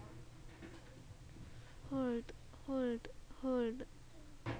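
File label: "hold", spoken word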